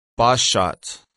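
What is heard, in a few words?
"boss-shot", English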